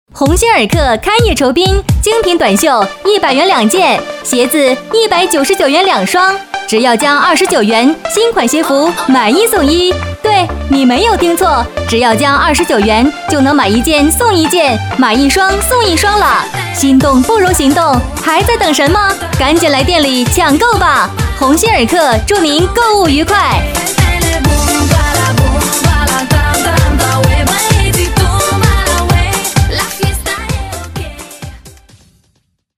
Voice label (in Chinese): 女 促销; 鸿星尔克